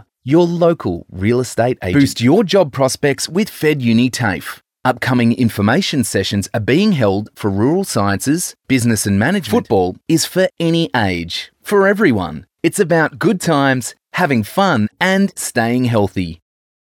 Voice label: Soft Sell